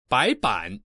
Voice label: white, voice man

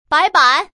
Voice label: "white", woman

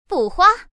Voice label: flower, voice woman

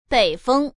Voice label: "north", woman

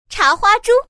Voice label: pig, voice woman

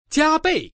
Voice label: double, voice man

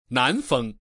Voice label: south, voice man

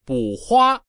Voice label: flower, voice man

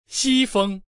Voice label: west, voice man

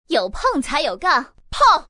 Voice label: "pong", woman

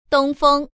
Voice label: east, voice woman

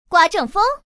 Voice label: wind, voice woman

